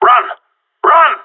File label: bomb fallback, radio; GSG9